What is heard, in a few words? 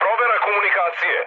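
Serbian chat; War Thunder; speech srb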